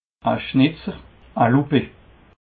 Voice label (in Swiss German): "schnetzer", alsace